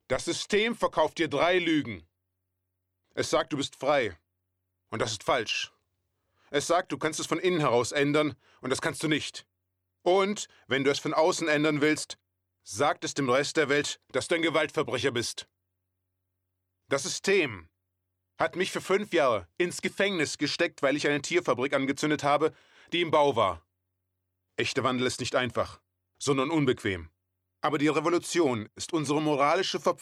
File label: male german comercial